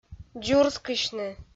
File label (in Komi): "дзурскысьны", Komi